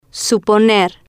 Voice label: "suponer", Spanish

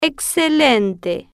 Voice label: "e-xce-len-te", Spanish

